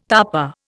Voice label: "tapa", Indian

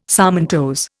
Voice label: "sarmentose", Indian

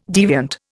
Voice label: "deviant", Indian

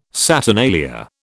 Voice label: "saturnalia", British

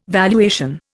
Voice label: "valuation", Indian

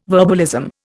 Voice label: "verbalism", Indian

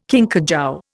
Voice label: "kinkajou", Indian

